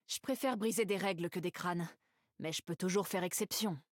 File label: vo, fr-fr; rell